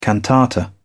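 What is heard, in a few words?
"cantata", British English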